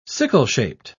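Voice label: "sickle-shaped", British English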